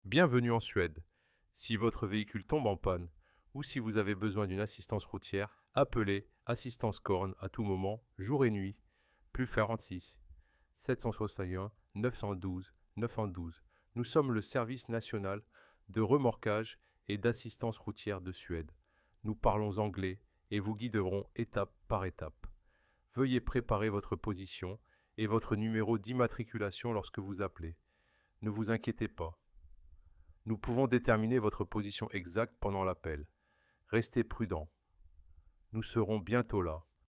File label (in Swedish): Ak franska rost